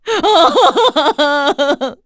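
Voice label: Vox Sad, Korean; heroes; Cecilia; kingsraid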